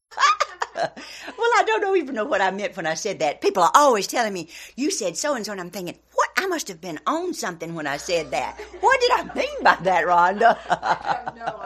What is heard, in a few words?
Plantation Southern, Mississippi; WF b.1920 age 73